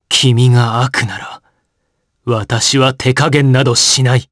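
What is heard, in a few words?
voice, Japanese; Arch; kingsraid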